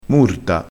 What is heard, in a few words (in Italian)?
"murta", crespinese